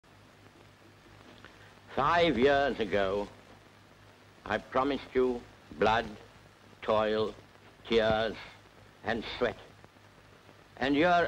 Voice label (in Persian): سخنرانی; وینستون چرچیل; Winston Churchill